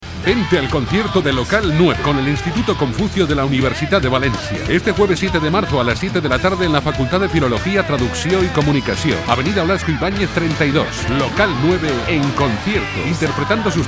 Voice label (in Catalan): anunci radio